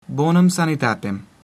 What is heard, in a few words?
"good health", Latin